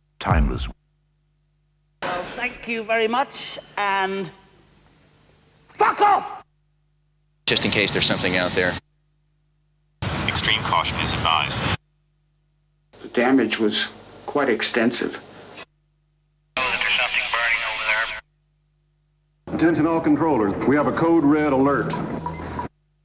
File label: Vocal Drops; Kniteforce; 1000 Samples Volume 1